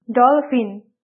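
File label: "dolphin", English; grade4; vertebrates invertebrates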